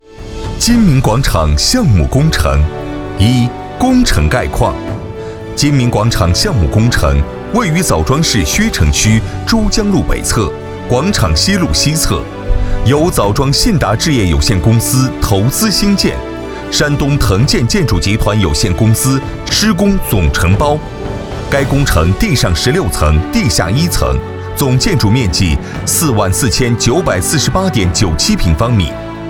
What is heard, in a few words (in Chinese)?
男 工程解说 地产项目